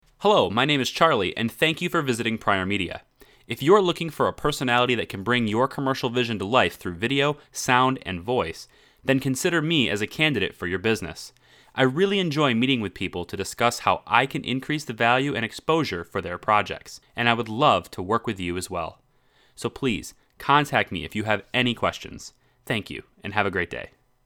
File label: Voice Greeting